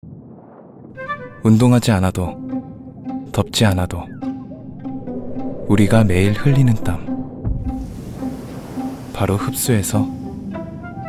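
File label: Korean male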